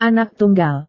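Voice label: "anak tunggal", Indonesian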